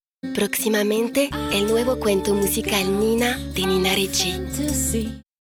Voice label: espagnol Billboard; NinaRicci

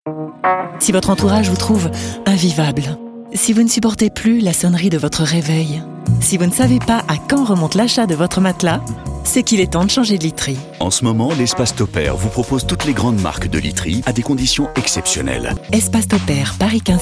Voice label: souriante complice; Topper literie

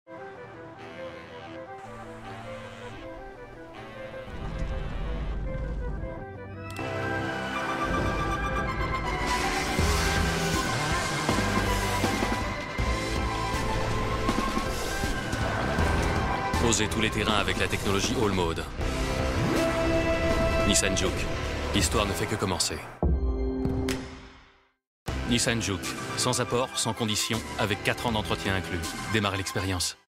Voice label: Spot TV; Nissan JUKE

